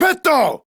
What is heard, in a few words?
Attack Male, Battle Barks; en; Modular Corpus